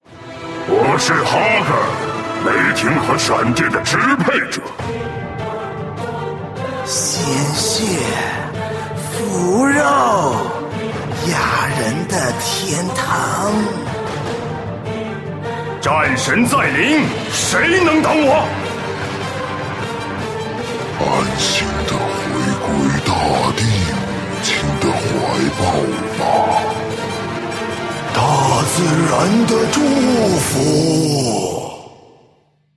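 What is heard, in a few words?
male other BOSS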